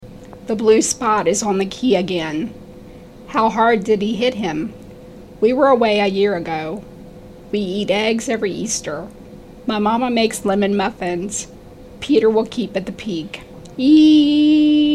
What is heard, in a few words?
Post Surgery Voice